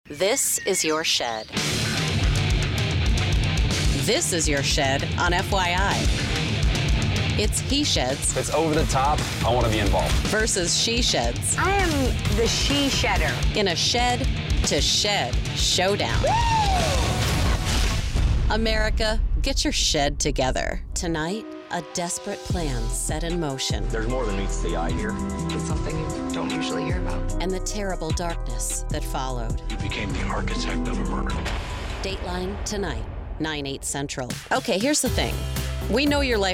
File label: Promo Trailer VO